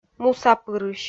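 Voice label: "мусапырысь", Komi, female; kpv; audio dict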